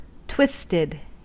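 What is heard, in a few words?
"twisted", US English; pronunciations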